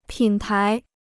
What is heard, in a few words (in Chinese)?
"品牌", Chinese